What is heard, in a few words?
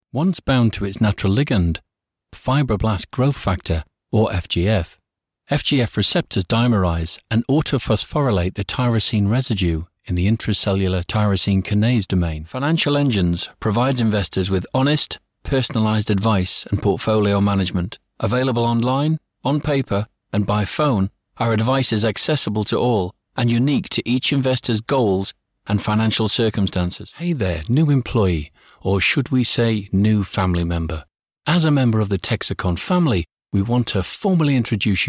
elearning